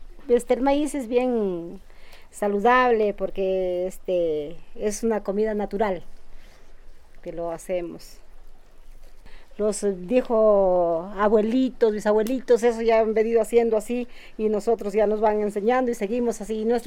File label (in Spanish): habla del maiz, Piura